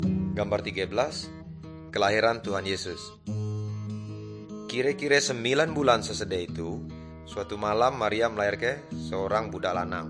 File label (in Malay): good news melayu tanjung raja; malay